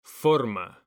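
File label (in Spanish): "forma", Spanish, male; mekanta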